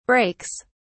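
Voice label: "breaks", British English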